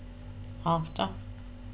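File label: "after", British, female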